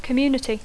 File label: "community", English